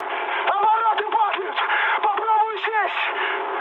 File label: damaged engine, mood high, speech ru; War Thunder